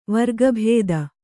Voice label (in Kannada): "ವರ್ಗಭೇದ", kannada